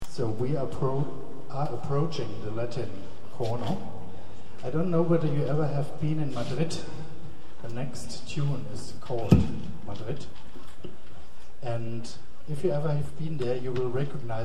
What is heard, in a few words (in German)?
Ansage